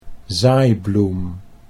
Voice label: "zaaibloem", Dutch